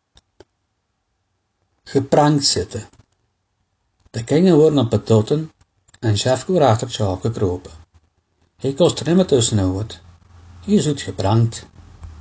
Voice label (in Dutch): geprangd zitten, dialect